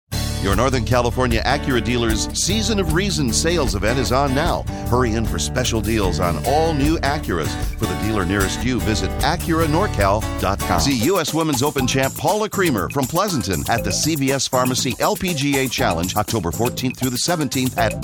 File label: voice over